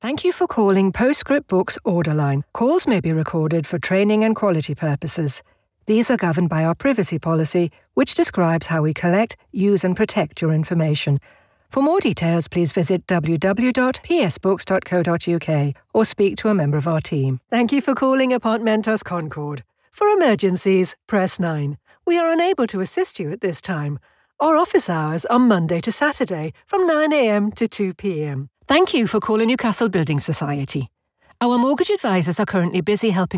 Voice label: telephone